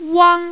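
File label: "wang", Chinese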